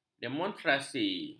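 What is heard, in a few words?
"demonstrasi", Malay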